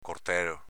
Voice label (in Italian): "cortelo", dialetto veneto; cortèlo s.m. coltello